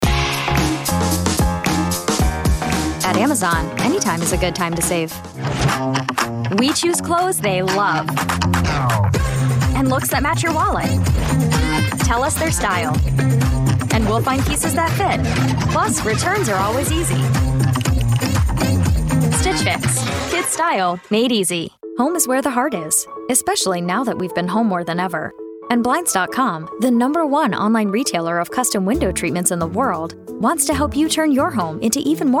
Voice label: Commercial